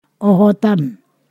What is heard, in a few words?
"waist", Ponosakan